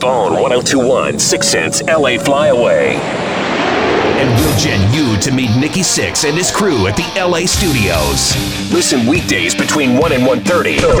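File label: voice over rock alternative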